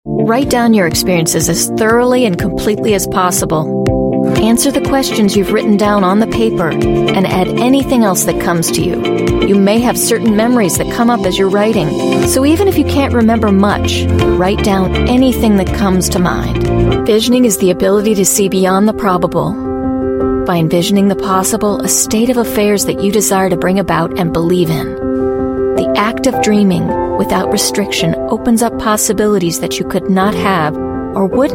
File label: english american voice over female narrator